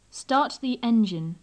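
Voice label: "start the engine", British English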